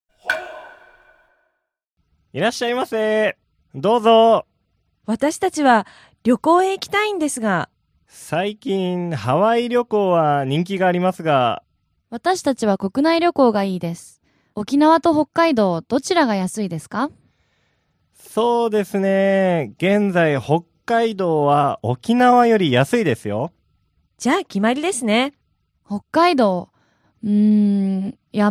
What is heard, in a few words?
dialog; JapanesePod101; Beginner Season 1